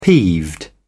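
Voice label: "peeved", English, British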